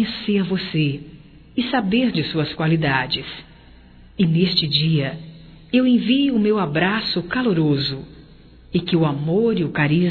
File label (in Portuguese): Agradecendo Presente Feminino; Telemensagens 2017